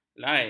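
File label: "lai", Malay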